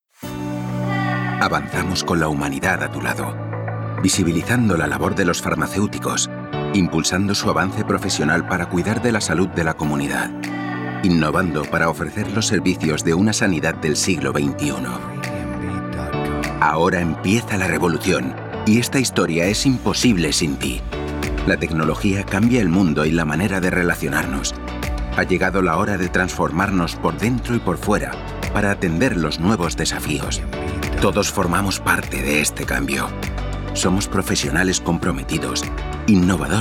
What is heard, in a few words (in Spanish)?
Corporativo